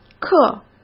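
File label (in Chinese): "课", Mandarin Chinese; hsk; chinese-flashcards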